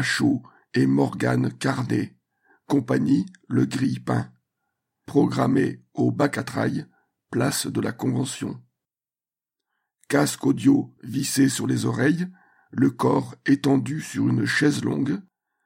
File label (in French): Poesie couchee sur musique en transat